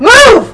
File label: fem move, voice; lmctf